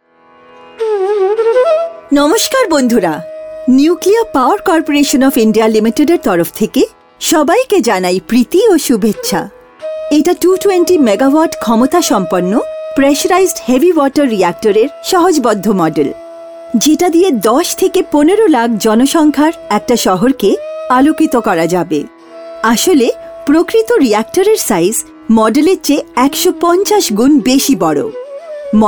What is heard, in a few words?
Model Commentary Corporate AV Bengali